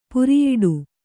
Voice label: "ಪುರಿಯೋಡು", Kannada

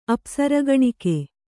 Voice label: "ಅಪ್ಸರಗಣಿಕೆ", Kannada

